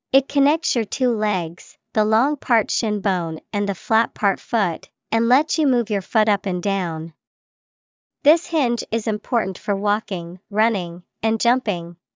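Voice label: "ankle", English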